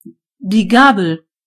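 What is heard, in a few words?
fork, German voices words; gcompris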